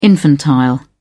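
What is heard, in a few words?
"infantile", British English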